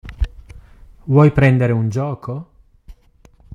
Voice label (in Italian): italiano